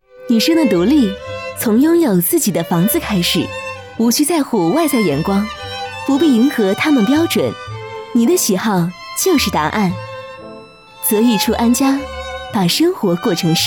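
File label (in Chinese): 专业女声 【品牌广告】高雅气质; 中文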